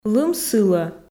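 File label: Komi sentences, female; лым сылӧ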